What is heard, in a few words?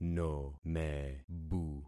"nomebu", concat syllables; Affixing experiment